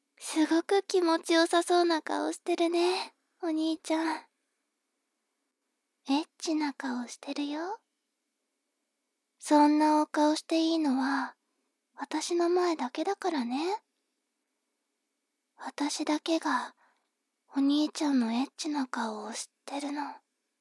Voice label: 妹 台詞

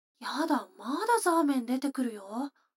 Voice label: 女の子台詞, ASMR

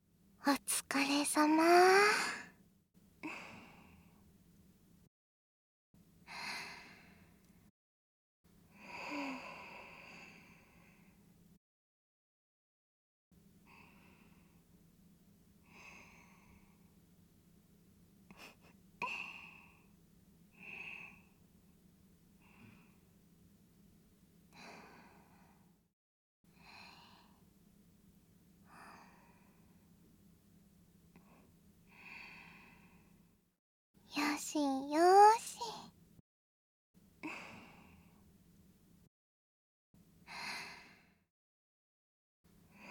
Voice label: ピロートーク ノイズ除去なし; カスタマイズトラック; ピロートーク編

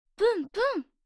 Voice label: 「ぷんぷんっ！」 おこ; 黒崎オノマトペ

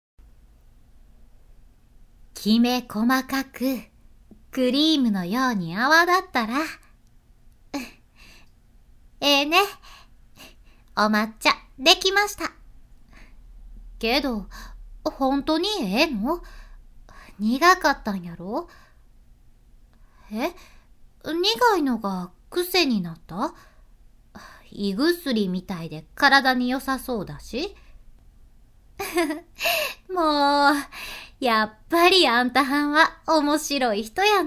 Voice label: ボイスのみ; 和風わん娘

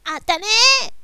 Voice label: "あたれっ", 攻撃

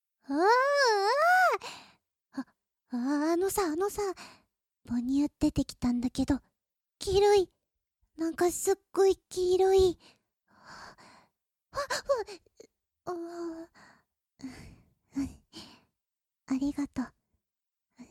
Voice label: ピロートーク; 本編 効果音なし

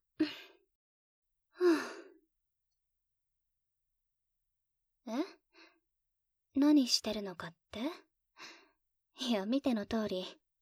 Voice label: 添い寝; エンディング

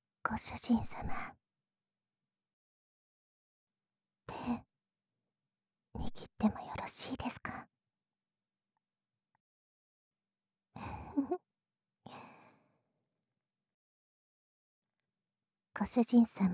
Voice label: ピロートーク; 爆乳童顔メイド型セクサロイド

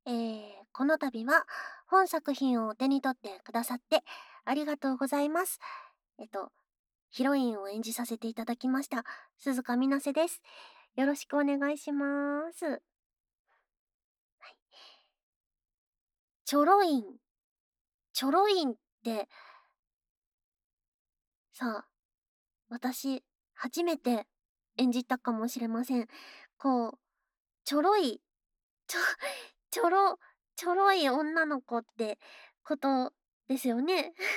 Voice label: フリートーク

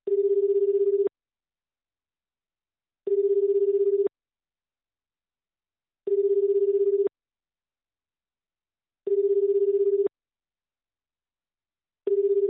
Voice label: 予約電話

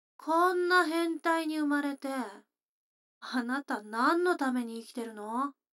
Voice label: 女の子台詞

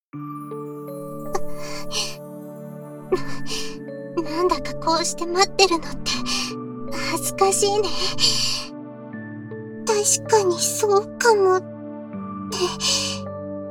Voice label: もう我慢出来ないッ！ BGMあり

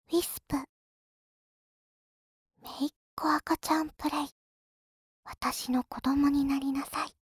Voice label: タイトルコール

